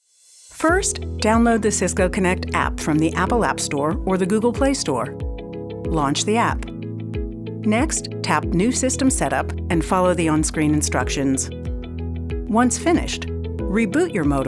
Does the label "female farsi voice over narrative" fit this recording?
No